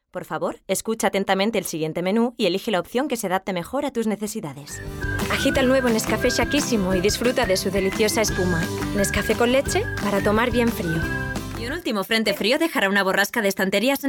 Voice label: SPANISH; Mexican